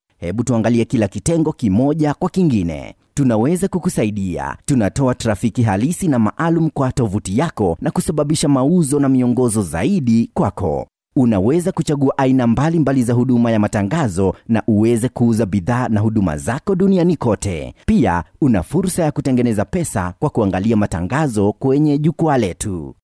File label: swahili narration